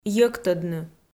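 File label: "йӧктӧдны", Komi, female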